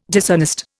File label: "dishonest", Indian